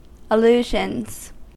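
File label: "allusions", US English; pronunciations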